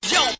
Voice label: "yo", Voice